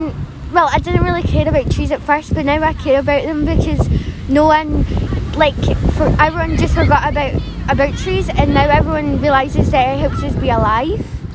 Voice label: Pupils voice